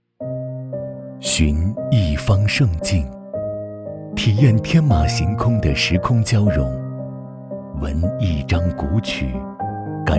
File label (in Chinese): 男 独白; 岭南